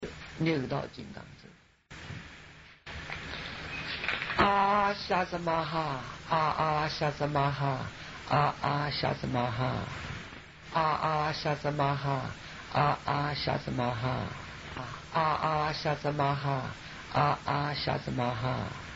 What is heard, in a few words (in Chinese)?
六道金刚咒, 老人咒语